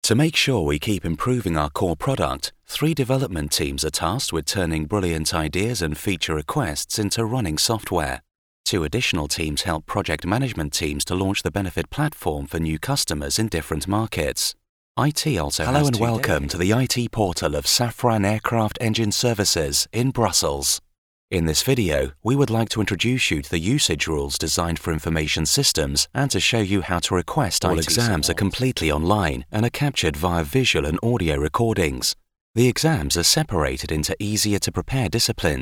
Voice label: E-Learning